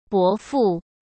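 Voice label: "伯父", Mandarin Chinese; chinese-flashcards; hsk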